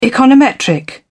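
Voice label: "econometric", British English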